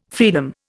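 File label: "fraenum", Indian English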